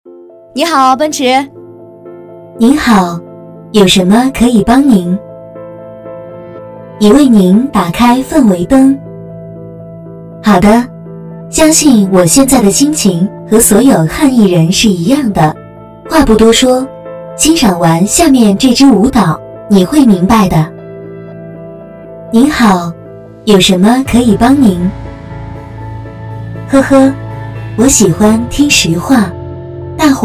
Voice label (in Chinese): AI语音; 你好-奔驰